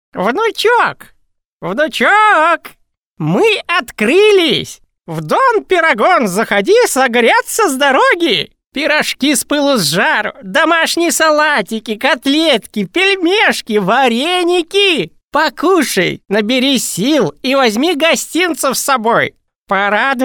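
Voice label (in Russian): babushka starushka, grandmother old woman voice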